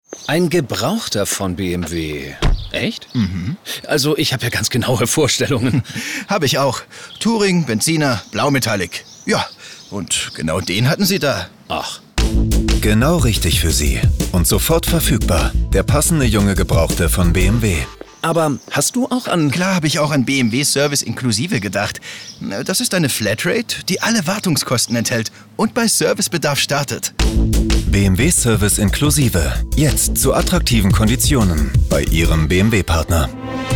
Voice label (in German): Webradio; BMW